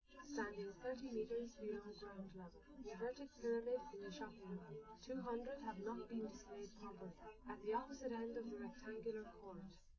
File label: female4 liverec 130ms 1m sim